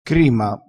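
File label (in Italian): "crima", dialettale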